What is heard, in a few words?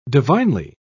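"divinely", British English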